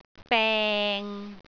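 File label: "beng6", Cantonese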